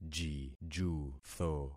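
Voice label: "jijutho", concatenated syllables; Affixing experiment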